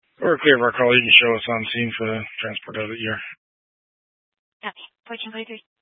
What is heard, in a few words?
Fire Department Dispatch, scanner